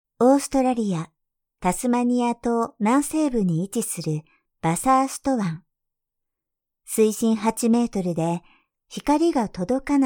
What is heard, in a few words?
narration